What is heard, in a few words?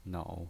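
"nou" third tone, pinyin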